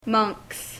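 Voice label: "monks", English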